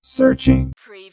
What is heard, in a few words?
Robot voice, "searching"; lynne publishing